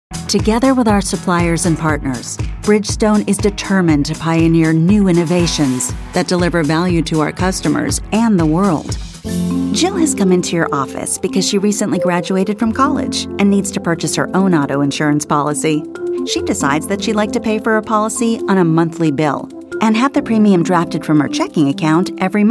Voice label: Narration, Female voices